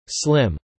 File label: "slim", English